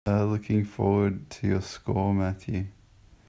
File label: voice message